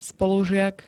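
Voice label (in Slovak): "spolužiak", Slovak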